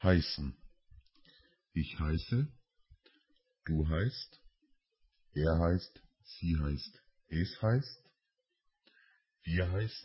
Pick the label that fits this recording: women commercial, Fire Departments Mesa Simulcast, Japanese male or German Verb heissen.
German Verb heissen